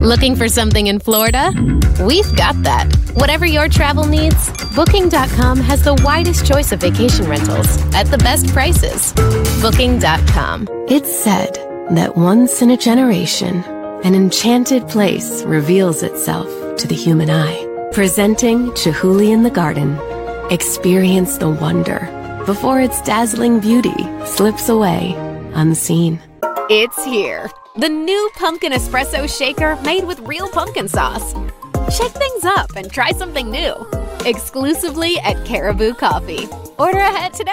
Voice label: commercial